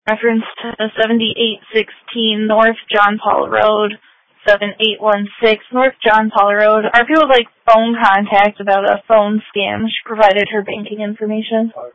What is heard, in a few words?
Sheriff Dispatch